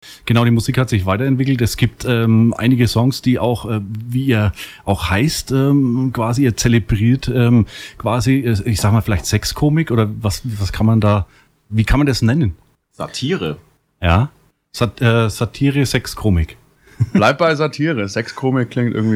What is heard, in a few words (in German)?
Schubsetanz Ansage